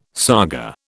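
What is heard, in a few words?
"saga", British English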